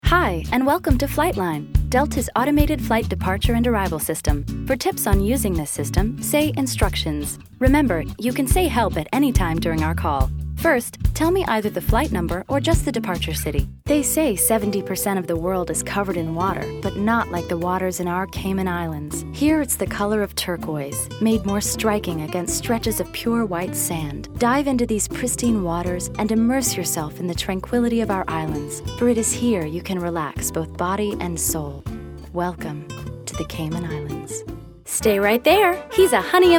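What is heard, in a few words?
Commercial, voice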